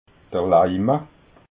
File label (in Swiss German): "leima", alsace